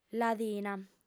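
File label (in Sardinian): "ladina", Sardinian, female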